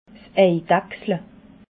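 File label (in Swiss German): "eidachsle", Alsatian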